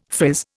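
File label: "frizz", Indian English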